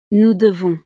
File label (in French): "nous devons", frances